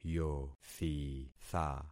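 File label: "yothitha", concatenated syllables; Affixing experiment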